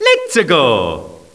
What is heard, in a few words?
lets a go, Echo inside caves, underground; DS; ML BOWSERSINSIDE; Mario